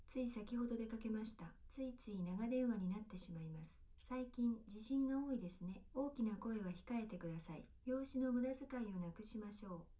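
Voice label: female, live recording, 250 ms, 1 m; SiSEC11; underdetermined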